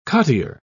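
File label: "cottier", British English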